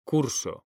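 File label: "CURSO", Spanish, male; mekanta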